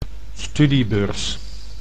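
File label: "studiebeurs", Dutch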